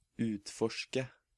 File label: "Utforske", Norwegian